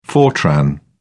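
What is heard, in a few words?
"fortran", English, British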